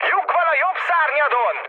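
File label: Hungarian right wing mood high, speech; War Thunder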